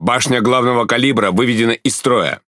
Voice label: Speech Defective Modules MainGun Damaged, RU; World Of Warships